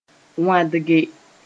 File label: "wãdge", Mooré; scratch